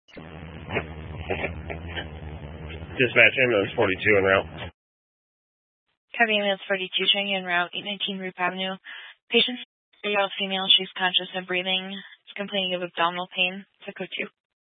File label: Fire Dispatch, Scanner audio recording